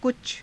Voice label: "kucha", Hindi